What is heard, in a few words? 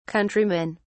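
"countrymen", British English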